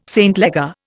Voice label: "St Leger", Indian

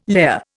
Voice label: "layer", Indian

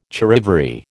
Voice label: "charivari", American

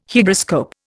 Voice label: "Hygroscope", Indian

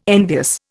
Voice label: "envious", Indian

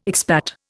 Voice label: "expat", Indian

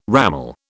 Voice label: "ramal", British

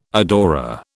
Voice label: "adorer", British

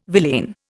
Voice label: "villain", Indian

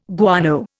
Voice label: "guano", Indian

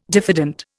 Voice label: "diffident", Indian

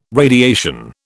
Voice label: "radiation", British

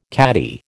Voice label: "caddie", American

